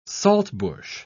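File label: "saltbush", British English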